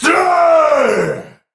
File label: Attack Effort Heavy; Tau; Dax; Battle Barks